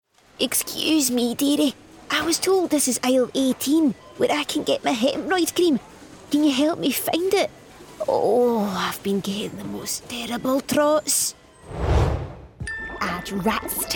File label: Animation; regional accents